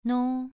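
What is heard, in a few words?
"nou", tone 2, pronunciation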